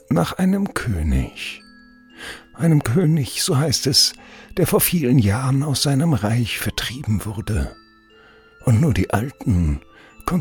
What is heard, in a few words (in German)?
Hoerbuch Alter Mann erzaehlt Maerchen